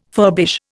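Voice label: "furbish", Indian English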